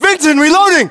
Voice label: US inform reloading, battlechatter, english